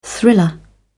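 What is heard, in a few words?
"thriller", British English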